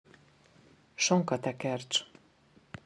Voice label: "sonka tekercs", hungarian; hungarian dishes